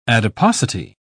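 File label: "adiposity", British English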